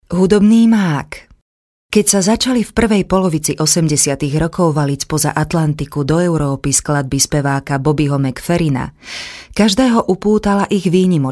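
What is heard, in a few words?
Slovak, female, narration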